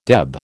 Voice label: "deb", American